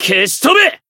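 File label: finish, Japanese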